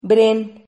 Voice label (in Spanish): "bren", Silabas